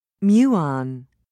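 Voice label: "muon", British English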